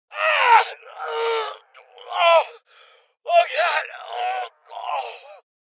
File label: Voices Injury Male